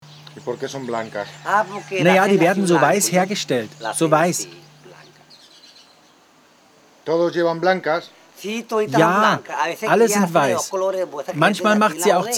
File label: Voice Over